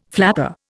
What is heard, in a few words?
"flapper", Indian